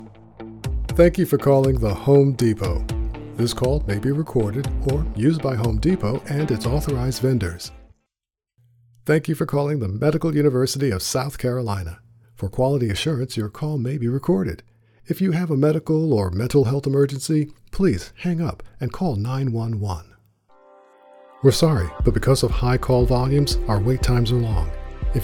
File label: VO Telephone Messaging; Telephone Messaging Demo